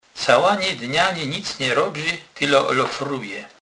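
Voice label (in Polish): "lofer", Kurpie dialect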